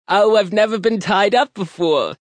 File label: Never Been Tied Up, Male; Talking Sound Effects